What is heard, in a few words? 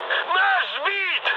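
Russian aircraft destroyed mood high, speech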